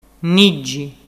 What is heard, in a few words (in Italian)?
"niggi"